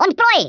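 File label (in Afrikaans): "orders", Afrikaans; Speech; International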